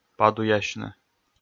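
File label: "падуйясьны", Komi, male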